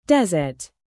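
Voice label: "present", noun, British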